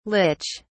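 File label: "lich", British English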